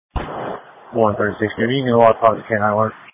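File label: Police Patrol (Dispatch)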